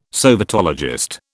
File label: "sovietologist", British